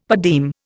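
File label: "per diem", Indian English; Dictionary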